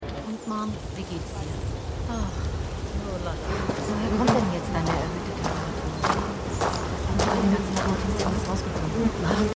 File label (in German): Frau, Aeltere Frau; Mutter; Slow Horses; Stimmproben; Ein Fall fuer Jackson Lamb; UK